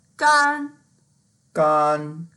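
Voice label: "gan1", Mandarin Chinese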